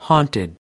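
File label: "haunted", American English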